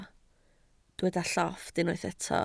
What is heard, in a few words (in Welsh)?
"llofft", Welsh